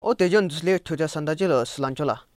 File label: Ohh talu unthai tshai thoka she la chilan chia la?, Lotha